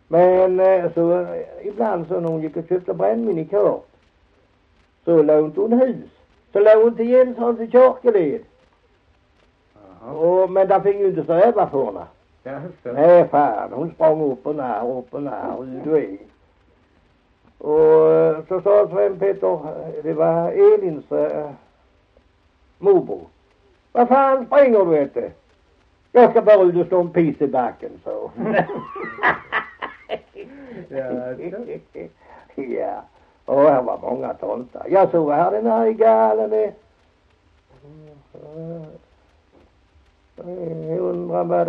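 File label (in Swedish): Hasslöv Halland, dialekter svenska sagor; Folkminnen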